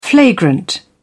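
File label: "flagrant", British English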